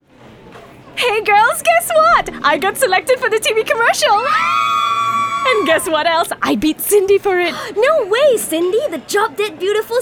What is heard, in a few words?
radio comm ENG; taknak; Audition